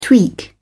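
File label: "tweak", English, British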